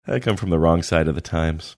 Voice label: quietly saying i come from wrong side of times